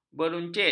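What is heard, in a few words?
"beruncit", Malay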